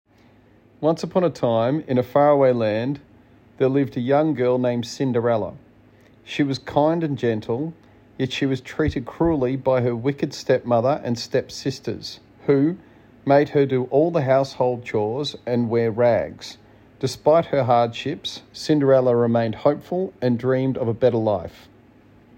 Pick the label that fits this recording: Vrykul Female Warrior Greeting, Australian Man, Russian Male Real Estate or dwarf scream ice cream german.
Australian Man